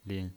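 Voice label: "lin", pinyin, Mandarin Chinese, neutral tone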